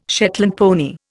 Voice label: "Shetland pony", Indian